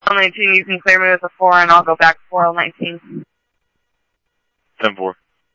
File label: police, scanner audio